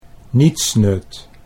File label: "nietsnut", Dutch; a good-for-nothing, useless person